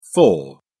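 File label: "fore", en, uk British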